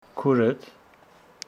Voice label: Homshetsi your sister